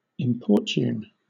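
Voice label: "importune", British English; pronunciations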